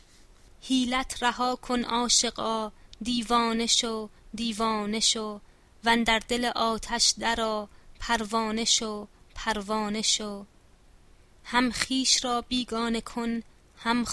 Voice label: pronunciation, Persian